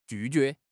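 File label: "ju4 jue2", Chinese; HSK4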